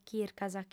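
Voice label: "chircas", female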